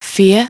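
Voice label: "four", English; iOS 4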